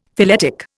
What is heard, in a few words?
"phyletic", Indian; Dictionary